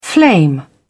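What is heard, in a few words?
"flame", British English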